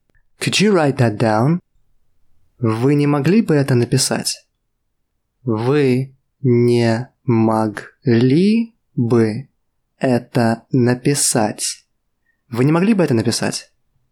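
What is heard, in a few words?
Russian, Could you write that down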